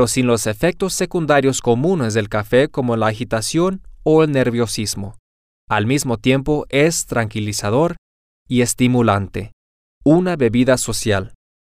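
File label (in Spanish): Argentina, un humeante taza de mate, Spanish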